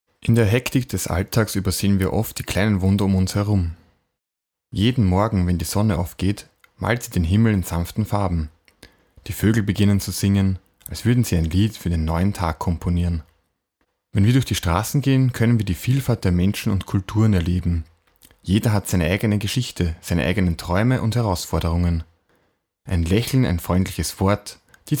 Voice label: Drama, German